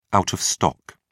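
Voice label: "out of stock", British English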